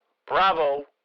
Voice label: Military radio voice; unit bravo